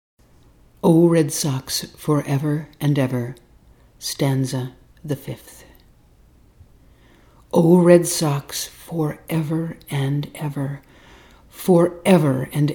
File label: Narration